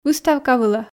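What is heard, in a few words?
"выставка вылӧ", Komi, female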